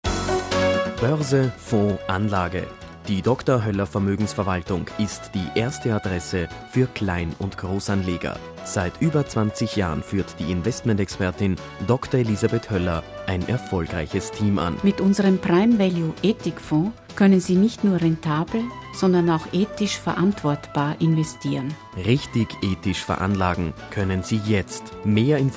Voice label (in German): werbespot; hoeller ethikfonds